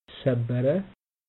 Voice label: "sabbara", Amharic